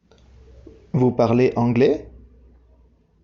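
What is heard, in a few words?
"vous parlez anglais", French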